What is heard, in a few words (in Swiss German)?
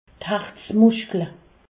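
"herzmuschkel", Haut Rhin; medecine; myocarde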